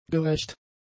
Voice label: "gerächt", German; adjectives

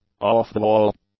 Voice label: "off-the-wall", english; adjectives

